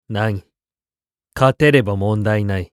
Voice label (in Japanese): 勝利ボイス3, クール系ボイス; 戦闘ボイス